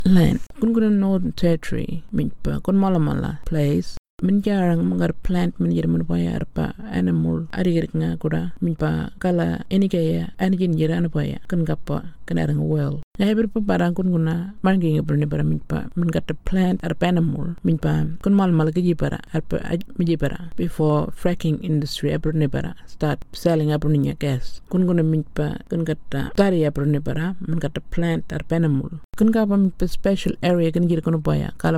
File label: Burarra; LAND